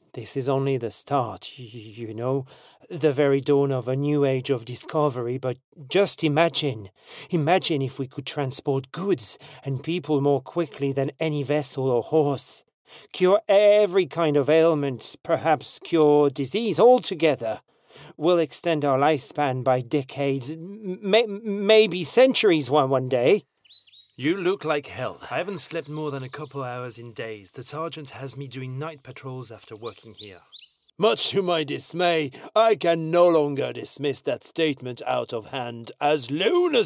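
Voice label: French English, male, characters, accent of france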